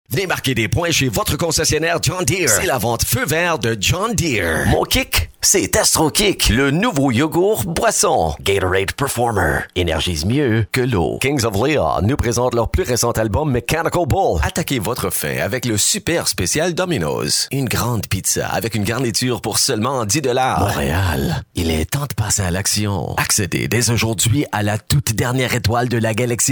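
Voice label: French Canada male voice over, sales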